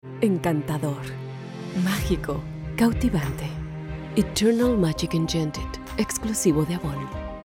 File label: Elegant Upper Class Sophisticated; Avon